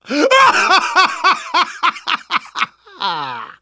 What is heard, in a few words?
taunt, survivor voice; manager; Left 4 Dead 2; left4dead2 dlc2